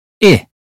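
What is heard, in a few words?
"ship", British English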